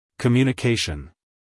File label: "communication" US English, male; everyone-can-use-english